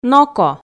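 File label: "ноко", Komi, female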